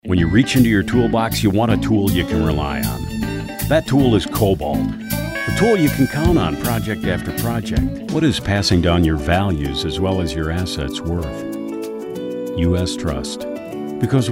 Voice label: Commercial